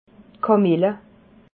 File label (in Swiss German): "kamilla", alsace